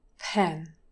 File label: "pen", English pronunciation